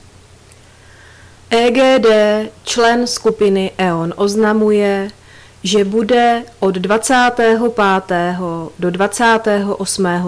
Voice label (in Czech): odecty elektromeru, rozhlas